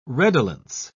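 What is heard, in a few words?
"redolence", English, British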